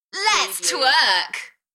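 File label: UK female, Enthusiastic, DJ vocals; Lets twerk; beanstalk audio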